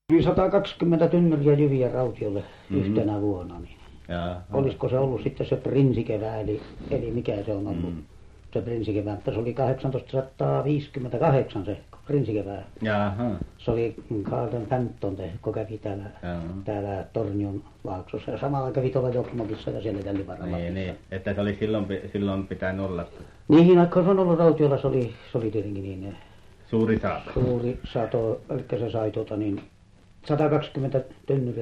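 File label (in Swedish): Övertorneå Norrbotten, dialekter; Folkminnen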